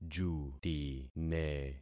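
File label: "jutine", concatenated syllables; Affixing experiment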